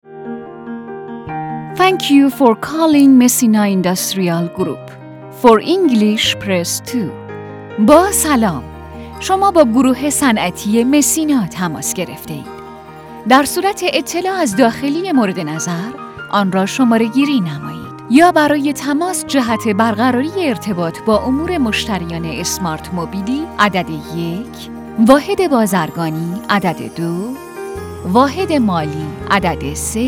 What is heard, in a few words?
IVR